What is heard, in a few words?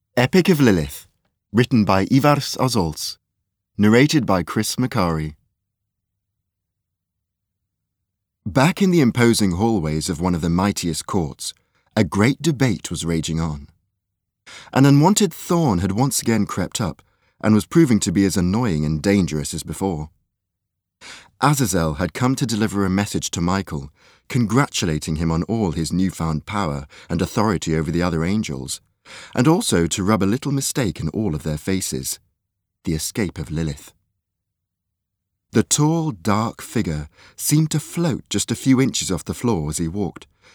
Audiobook; Audible